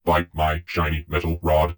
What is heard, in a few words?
heavy gloat; Dystopia; vox new